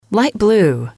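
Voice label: "light blue", English, female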